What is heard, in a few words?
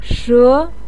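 "she", Chinese pinyin, second tone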